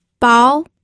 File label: "bao2", pinyin